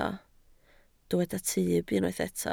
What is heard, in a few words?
"tyb", Welsh